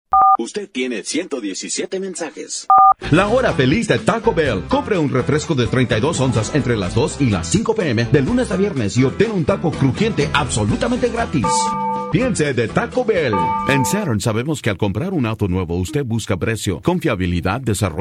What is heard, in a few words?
Spanish, males